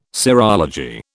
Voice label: "serology", British

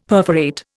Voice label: "perforate", Indian; Dictionary

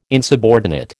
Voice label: "insubordinate", American

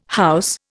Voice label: "house", Indian

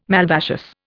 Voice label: "malvaceous", Indian

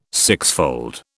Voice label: "sixfold", British

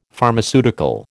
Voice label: "Pharmaceutical", American

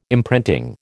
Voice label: "imprinting", American

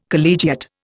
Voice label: "collegiate", Indian

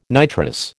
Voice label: "nitrous", American

